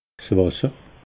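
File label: "wasser", Alsatian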